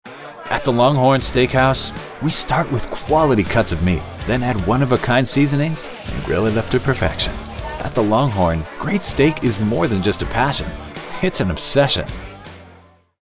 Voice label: Commercial; Longhorn Steakhouse